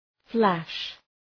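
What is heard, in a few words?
"flash", English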